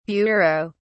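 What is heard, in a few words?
"bureaux", British English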